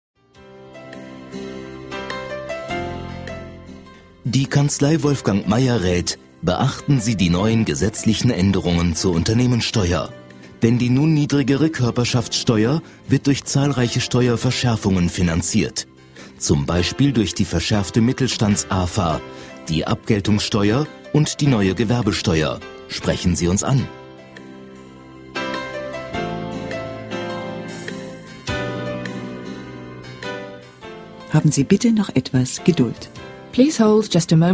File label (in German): Werbespot; Kanzlei Maier